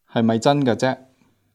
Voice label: "zek1", Cantonese